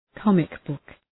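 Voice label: "comic book", English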